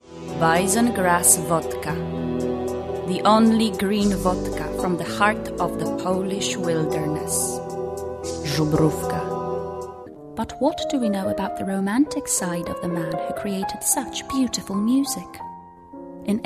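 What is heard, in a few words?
English, Eastern European, Polish, Female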